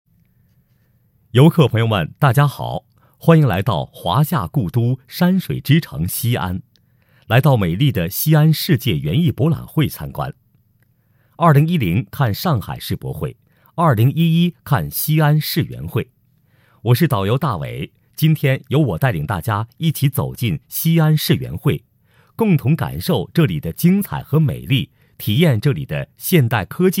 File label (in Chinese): 解说 导览; 西安世界园艺博览会导览